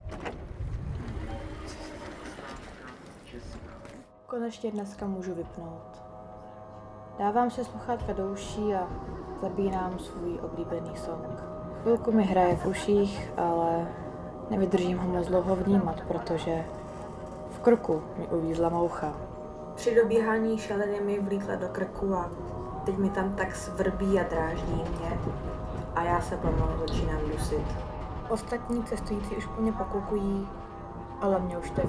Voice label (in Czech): narace